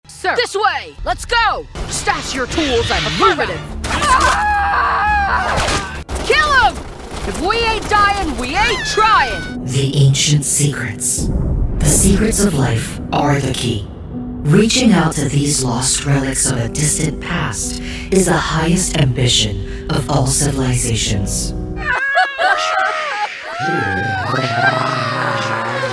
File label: women video games; Ranging from best friend to Gravitas. Warm, deep, authentic, professional. (South East Asian accent available)